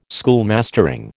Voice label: "schoolmastering", American